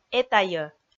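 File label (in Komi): "этайӧ", female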